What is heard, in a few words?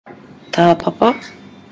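"tapapa", Māori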